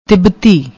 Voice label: "tibati", Punjabi

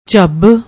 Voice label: "jhab", Punjabi

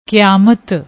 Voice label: "kiaamat", Punjabi

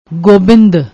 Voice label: "gobind", Punjabi; ਗੋਬਿੰਦ

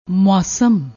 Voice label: "mousam", Punjabi

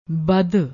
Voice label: "badd", Punjabi

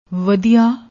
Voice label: "wadiaa", Punjabi; ਵਧੀਆ

